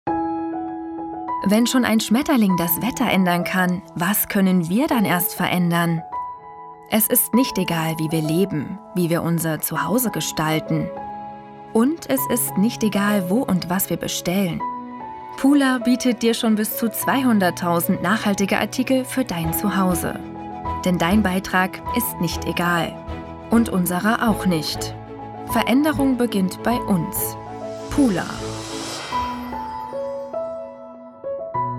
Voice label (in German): Werbung; Pula